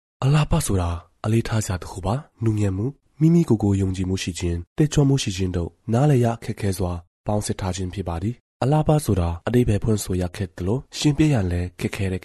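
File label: Burmese, male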